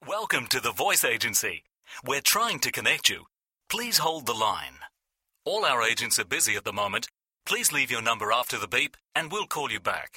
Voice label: onhold